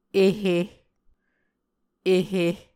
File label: "ehe", Muscogee